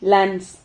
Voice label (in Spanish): "lans", Silabas